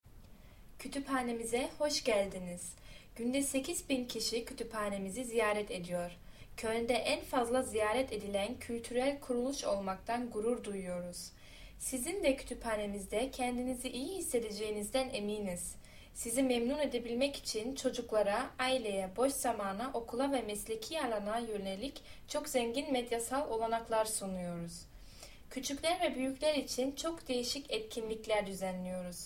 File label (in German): tuerkisch laut